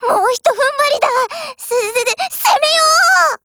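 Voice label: ATTACK, Japanese; 63type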